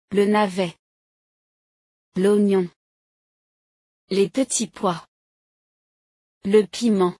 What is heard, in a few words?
Vegetables french online courses